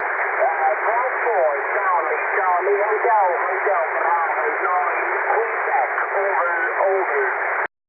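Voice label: ssb, 23 cm EME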